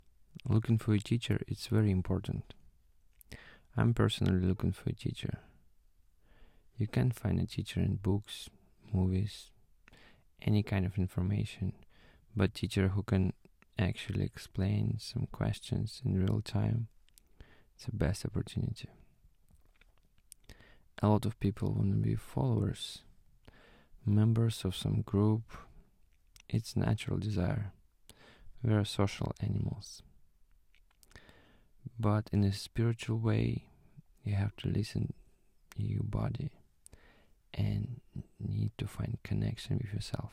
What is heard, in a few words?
English sample